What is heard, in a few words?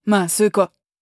neutral short